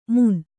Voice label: "ಮುನ್", Kannada

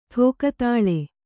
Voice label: "ಠೋಕತಾಳೆ", Kannada

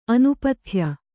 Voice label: "ಅನಪತ್ಯೆ", Kannada

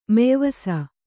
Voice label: "ಮೆಯ್ವಸ", Kannada

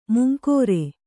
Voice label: "ಮಂಗರೆ", kannada

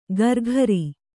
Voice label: "ಕರಕರಿ", Kannada

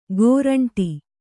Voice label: "ಕೋರಂಟಿ", Kannada

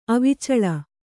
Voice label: "ಅವಿಚಳ", Kannada

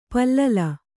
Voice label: "ಪಲ್ಲಿಲಿ", kannada

